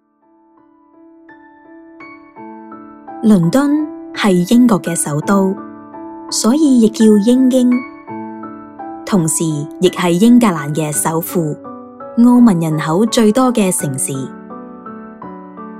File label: voice reel cantonese; creative kirklees